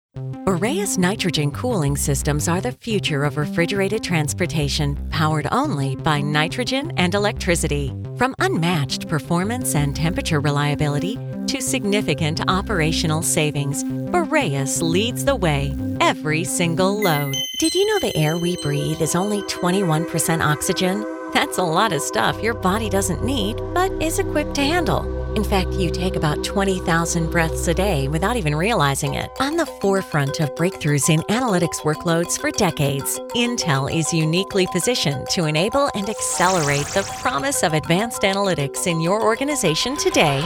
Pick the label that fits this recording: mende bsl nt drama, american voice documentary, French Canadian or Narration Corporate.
Narration Corporate